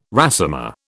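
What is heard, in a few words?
"résumé", British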